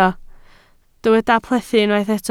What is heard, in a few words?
"plethu", Welsh